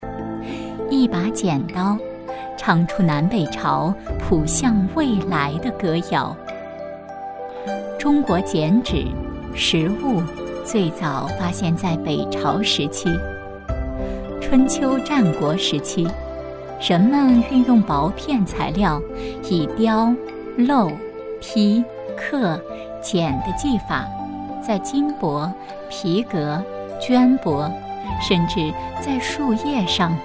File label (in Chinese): 女 讲述; 非遗剪纸艺术